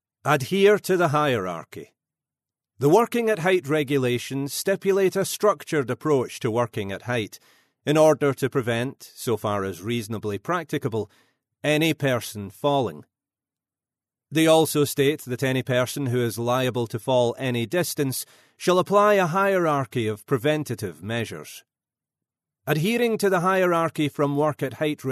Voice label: male scottish voice over talent narrative